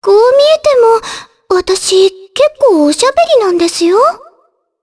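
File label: vox dia Japanese; Shea; kings raid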